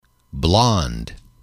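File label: "blond", male, English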